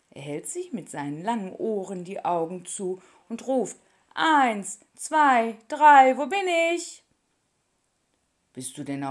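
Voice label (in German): hörspiel der kleine hase ist schon groß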